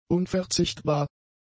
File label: "unverzichtbar", German; adjectives